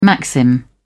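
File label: "maxim", British English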